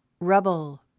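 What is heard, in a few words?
"rubble", English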